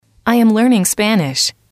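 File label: "i Am Learning Spanish", female, english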